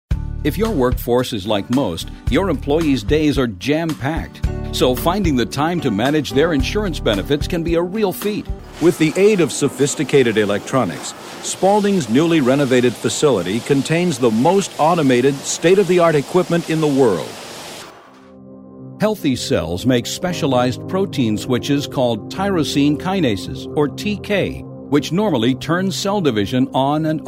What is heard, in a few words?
narration